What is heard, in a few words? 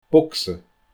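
"bogsa", Scottish Gaelic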